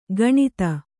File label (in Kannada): "ಗಣಿತ", kannada